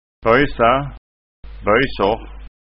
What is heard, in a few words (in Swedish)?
"bojsa bjosor", skanska ord